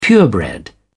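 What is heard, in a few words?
"purebred", British English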